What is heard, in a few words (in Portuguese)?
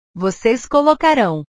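"vocês colocarão", Portuguese; portugues/verbos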